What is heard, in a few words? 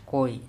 "koi", Andamanese; bird